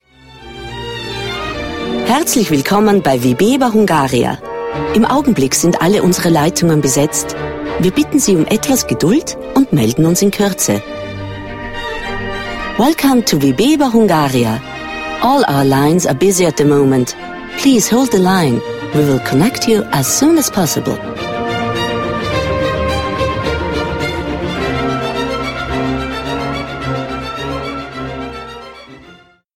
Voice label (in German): Telefonansage deutsch englisch